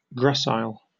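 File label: "gracile", British English; pronunciations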